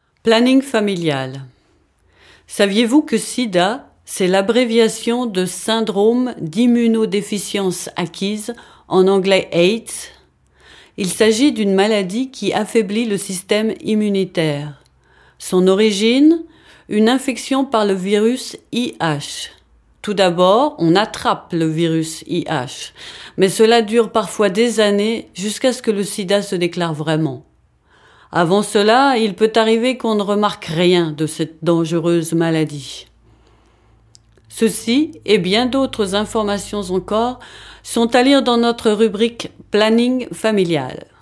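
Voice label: French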